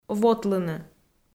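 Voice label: "вотлыны", Komi, female; audio dict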